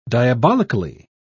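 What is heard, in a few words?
"diabolically", British English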